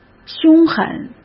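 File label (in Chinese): "凶狠", Mandarin Chinese; hsk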